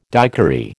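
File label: "daiquiri", American English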